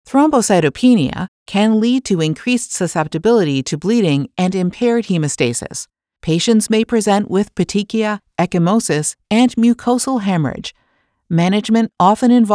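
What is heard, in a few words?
medical narration; thrombo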